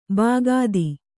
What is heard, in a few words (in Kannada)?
"ಭಕ್ತ", Kannada